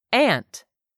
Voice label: "aunt", English; 3000 words